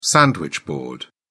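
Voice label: "sandwich-board", British English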